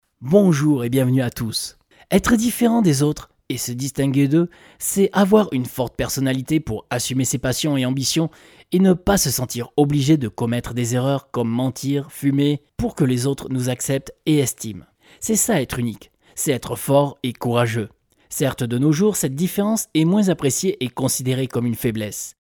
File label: FRENCH